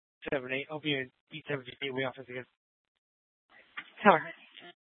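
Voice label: Police Department North Dispatch, scanner; Regional Wireless Cooperative (RWC) - Simulcast H- Scottsdale; Scottsdale PD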